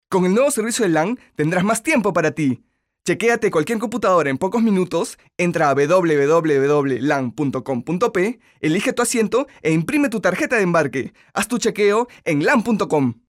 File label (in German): Peru M